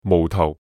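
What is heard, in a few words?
Cantonese "無頭"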